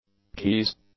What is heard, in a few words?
"keys", English; nouns